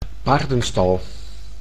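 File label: "paardenstal", Dutch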